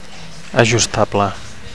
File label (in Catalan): "ajustable", Catalan; adjustable